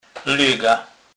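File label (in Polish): "lega", Kurpie dialect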